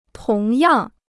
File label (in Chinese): "同样", Chinese